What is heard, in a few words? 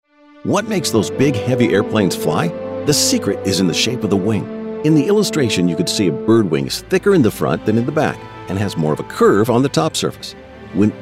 eLearning